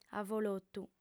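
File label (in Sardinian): "avolotu", female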